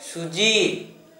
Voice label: bodkin, Gta, Dialect: Hill; household